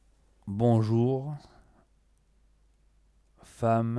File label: tongue dystonia "bonjour"